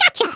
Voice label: "fatality", American; Speech; International